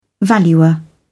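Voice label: "valuer", British English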